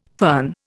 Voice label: "fern", Indian